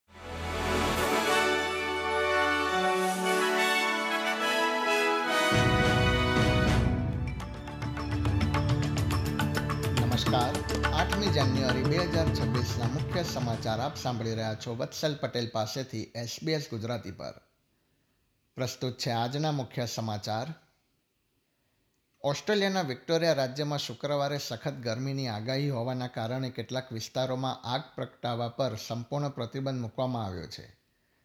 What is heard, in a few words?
gujarati news bulletin